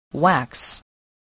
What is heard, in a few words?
"wax", spelling